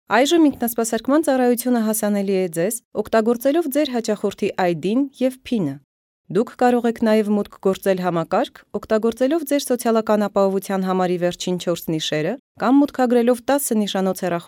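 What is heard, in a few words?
Armenian, female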